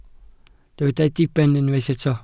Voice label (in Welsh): "diben", Welsh